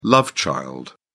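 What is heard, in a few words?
"love child", British English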